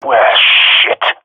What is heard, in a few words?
scared, jungle male epic; Source Model Packs; Counter Strike Model Pack; CS2